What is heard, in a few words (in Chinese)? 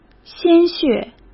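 "鲜血", Mandarin Chinese; chinese flashcards; hsk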